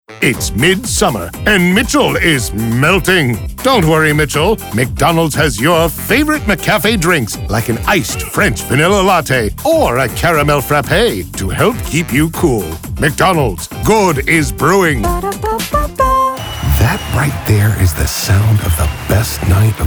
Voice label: commercial